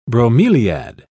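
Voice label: "bromeliad", British English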